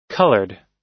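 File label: "coloured", US English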